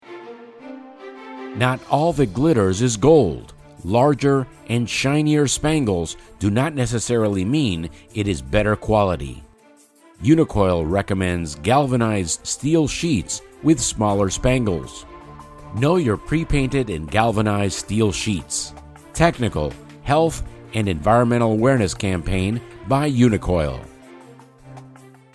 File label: Radio Spot Music